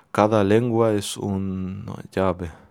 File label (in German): Italienisch